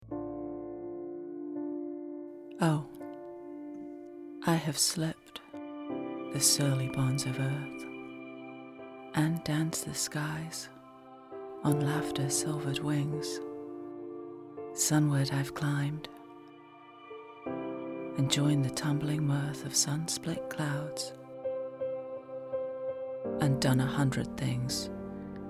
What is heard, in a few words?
POEM